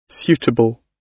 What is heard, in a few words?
"suitable", gb